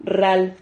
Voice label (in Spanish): "RRAL", Silabas